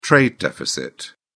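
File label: "trade deficit", British English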